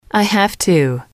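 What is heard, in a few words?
"I have to", English, female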